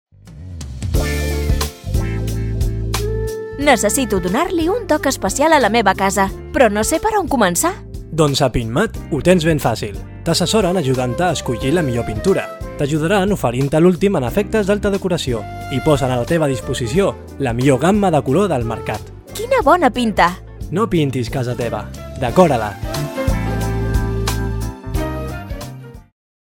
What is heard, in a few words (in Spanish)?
PINTAR Y DECORAR CATALAN